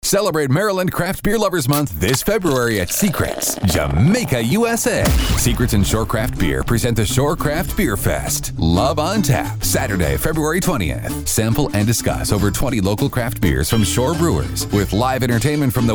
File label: radio spot